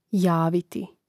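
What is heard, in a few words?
"javiti", Croatian; mreznik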